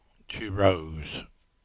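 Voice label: "Chirose", English; place-names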